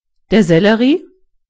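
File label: "celery", German; gcompris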